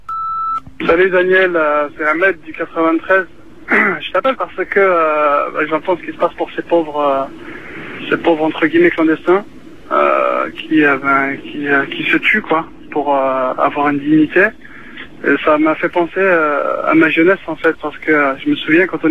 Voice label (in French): repondeur; Clandestins desert marocain